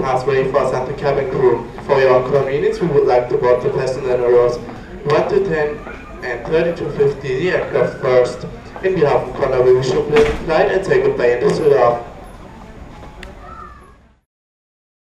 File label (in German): Flughafen Ansagen, nach Teneriffa; Condor